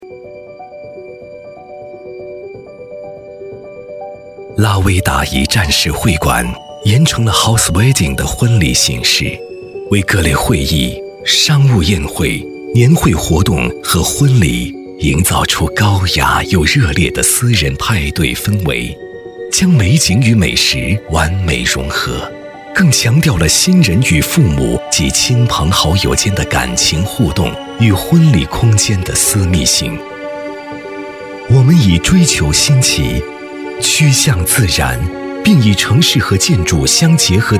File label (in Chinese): 男 专题; 婚礼一站式服务